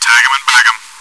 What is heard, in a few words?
cheer; svencoop